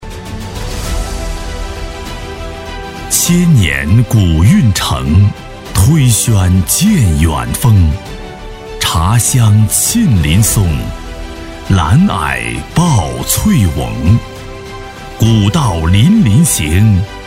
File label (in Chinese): 男 大音 城市; 蒲江